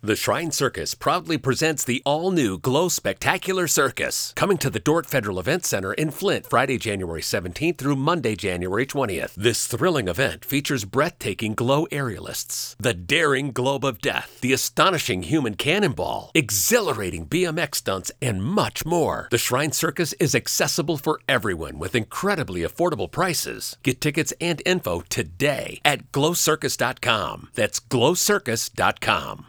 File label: PROMO VO; Shrine Circus